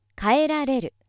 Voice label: female